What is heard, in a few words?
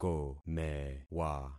"komewa", concat syllables; Affixing experiment